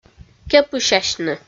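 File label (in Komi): "кепысясьны", Komi, female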